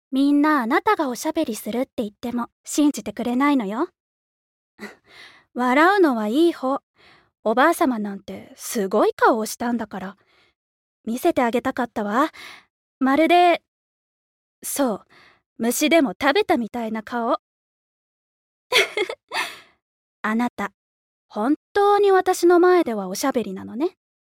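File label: voice sample, quirky girl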